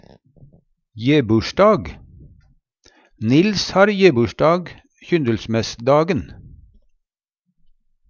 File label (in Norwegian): jebursdag, Numedalsmål